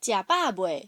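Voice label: minnan, Chinese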